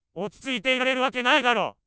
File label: synth anger